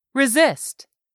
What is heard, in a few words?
"resist", english; 3000 words